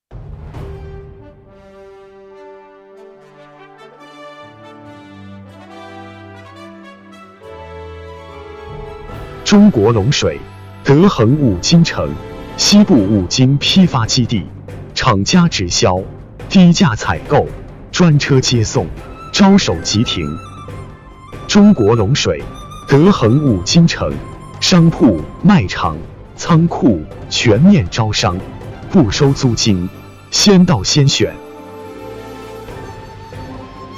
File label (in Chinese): 男, 招商广告, 五金城